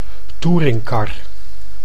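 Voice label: "touringcar", Dutch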